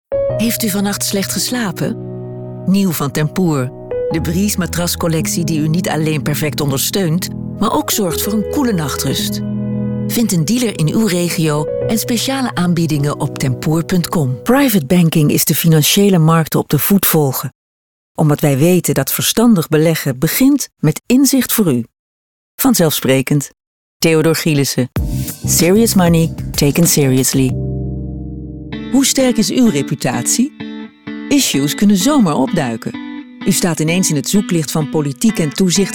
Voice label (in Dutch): Warm Zakelijk